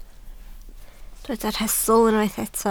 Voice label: "rhestl", Welsh

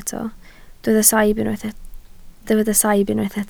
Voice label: "saib", Welsh

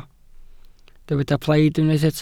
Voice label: "plaid", Welsh

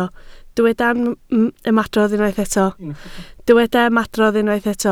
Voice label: "ymadrodd", Welsh

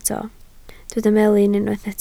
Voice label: "melin", Welsh

